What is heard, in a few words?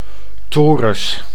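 "torus", Dutch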